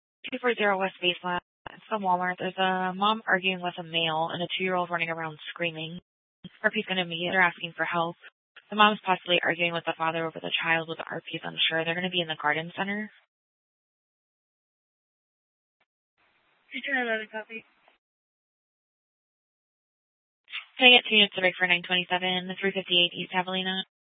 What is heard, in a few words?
Mesa PD Dispatch, scanner; Fiesta; TOPAZ Regional Wireless Cooperative (TRWC); Mesa Simulcast